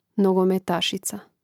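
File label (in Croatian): "nogometasica", Hrvatski; mreznik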